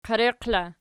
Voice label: "qiereqi", Archi